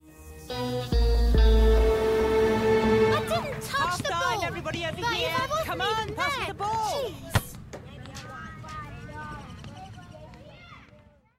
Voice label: character, ENGLISH UK, FEMALE